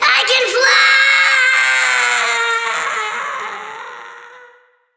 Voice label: Troll VO Fall Quip